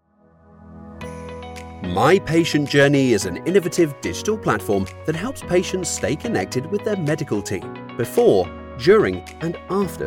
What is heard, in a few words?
explainer video